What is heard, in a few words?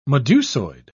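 "medusoid", English, British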